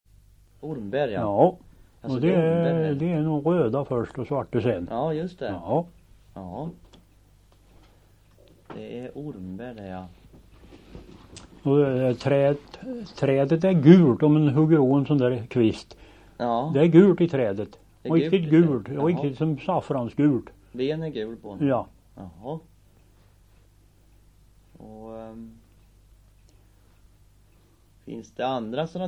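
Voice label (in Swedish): Hägerstad Östergötland, dialekter; Folkminnen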